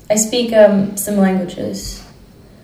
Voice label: interviewee female